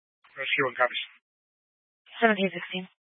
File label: Fire Dispatch, scanner